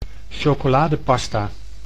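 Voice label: "chocoladepasta", Dutch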